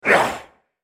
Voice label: effort, en US; Thresh